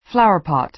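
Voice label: "flowerpot", American English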